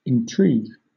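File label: "intrigue", British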